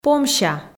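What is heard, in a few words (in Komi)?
"помся", Komi, female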